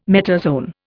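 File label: "metazoan", English, Indian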